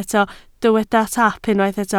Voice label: "taap", Welsh